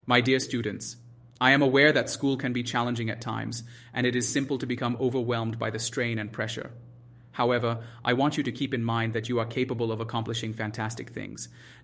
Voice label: English